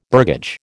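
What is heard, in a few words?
"burgage", American English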